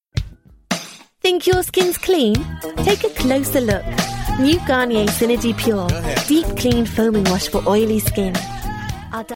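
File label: English UK advertisement; GoLocalise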